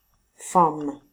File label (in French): femme; famille